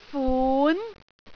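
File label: "fun2", Cantonese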